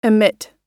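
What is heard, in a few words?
"emit", English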